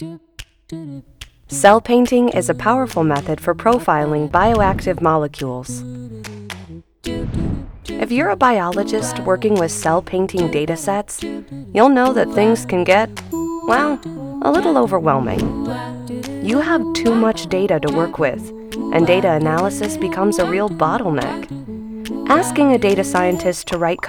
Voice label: Explainer Video; CLA StratoMiner

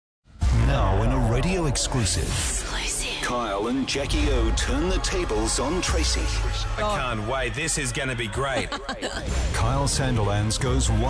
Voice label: Radio

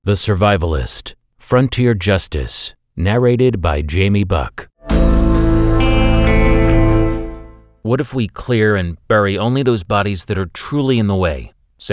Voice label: Audiobook Fiction

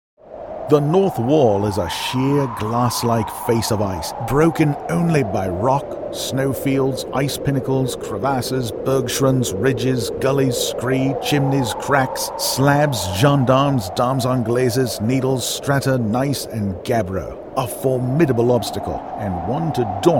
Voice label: Accents Dialects

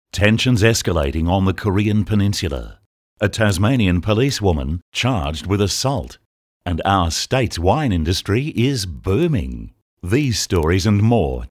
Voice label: VR Imaging

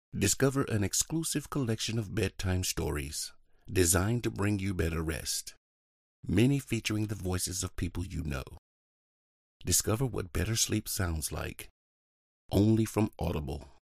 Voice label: Sleep narrator

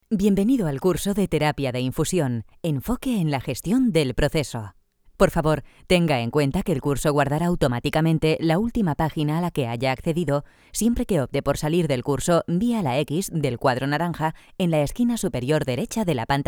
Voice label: Spanish Elearning

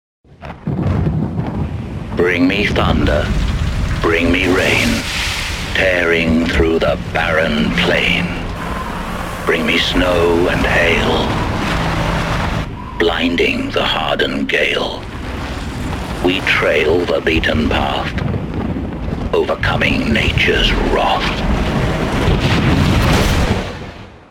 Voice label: ad; volvo

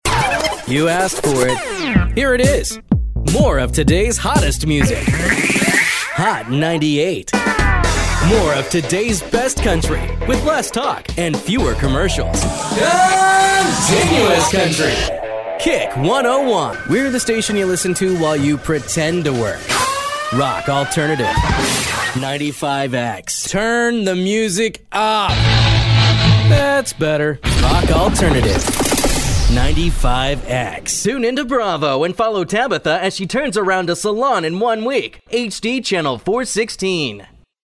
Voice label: Imaging

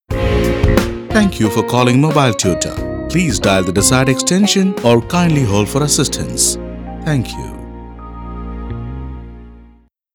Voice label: Toll Free male mix

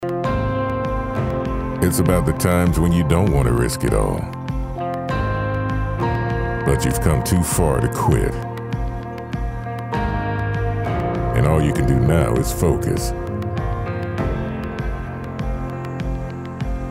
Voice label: Natural Speak; Hillclimbing

